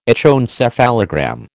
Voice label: "echoencephalogram", American